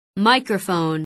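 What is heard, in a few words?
"microphone", English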